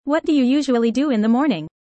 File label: fast